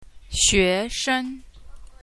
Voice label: student, Chinese; xue2 shen1